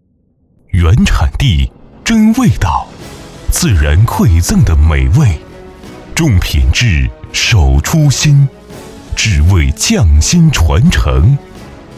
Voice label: 大气宣传片; 原产地

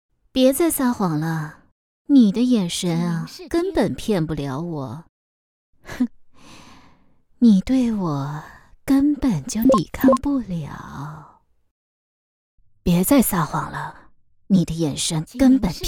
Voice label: 魅惑御姐; 魅惑御姐别再撒谎了

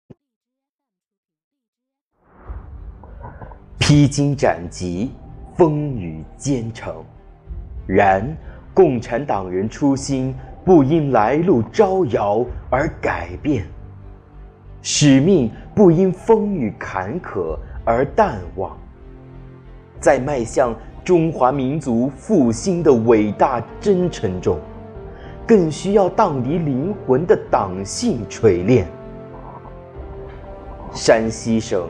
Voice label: 新闻; 披荆斩棘

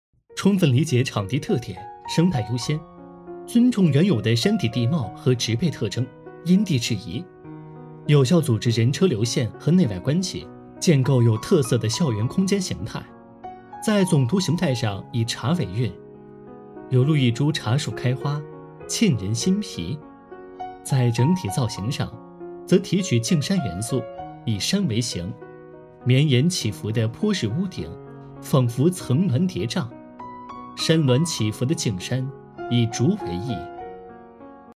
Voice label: 广告

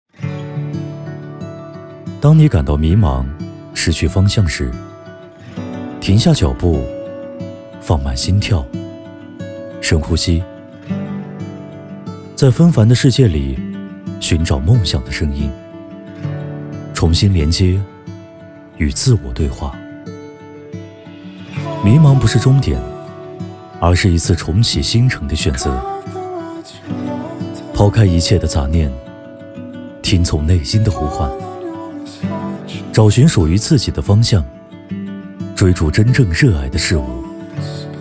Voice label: 走心温暖

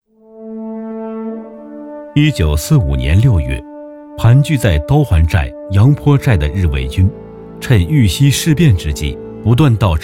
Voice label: 历史人物解说; 刀环寨攻坚战

